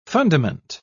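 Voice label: "fundament", British English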